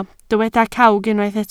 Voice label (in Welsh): "cawg", Welsh